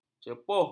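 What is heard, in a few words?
"cepuh", Malay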